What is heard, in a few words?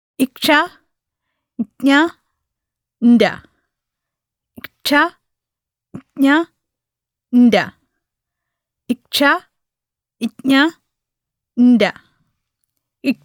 Malayalam Recordings Basic Pronunciation